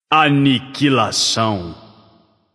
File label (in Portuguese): alert notify kill streak, vox caster portuguese